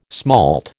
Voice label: "smalt", American